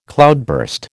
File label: "cloudburst", American